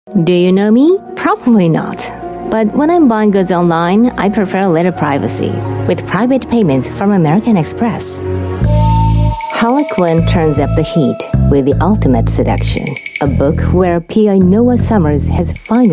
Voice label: Japanese Female English Sample